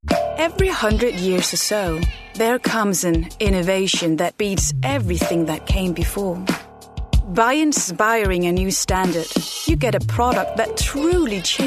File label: Eng; Danish, Scandinavian, Male, Home Studio, 20s-30s